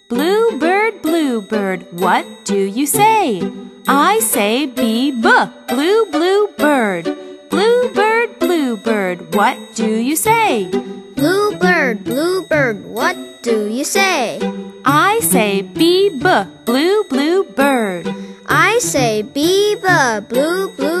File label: phonics chant